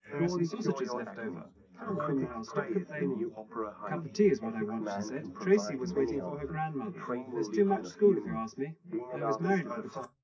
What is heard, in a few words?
male 130ms 4cm; SiSEC11; underdetermined